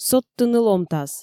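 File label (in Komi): содтыны ломтас, Komi, female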